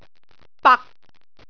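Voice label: "bak6", Cantonese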